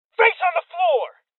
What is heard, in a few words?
Voices Order To Surrender Male Young; rebel cops 2019